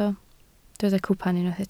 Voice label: "cwpan", Welsh